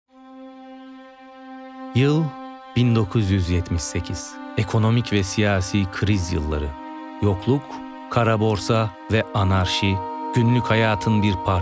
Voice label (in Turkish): tanitim filmi